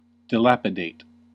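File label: "dilapidate", US English; pronunciations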